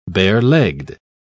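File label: "barelegged", British English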